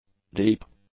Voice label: "deep", english; adjectives